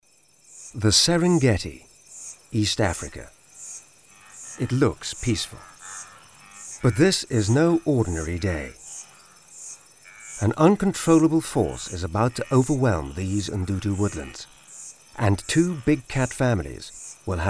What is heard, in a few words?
Nature documentary, voice; AMC